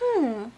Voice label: thinking